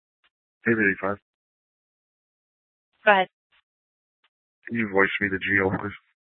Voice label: Superstition Dispatch, Mesa PD